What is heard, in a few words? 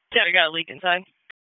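Fire Department HQ Dispatch; RFD; wake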